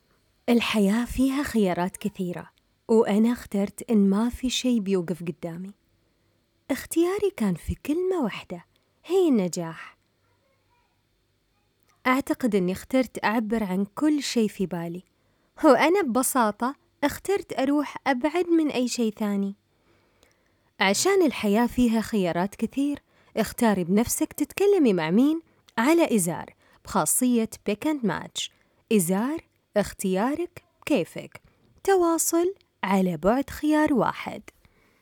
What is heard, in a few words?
Saudi